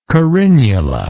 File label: "carinula", English